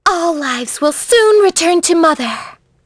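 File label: Vox Victory, voices heroes English; kingsraid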